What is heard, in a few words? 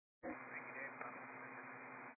122 725MHz, scanner radio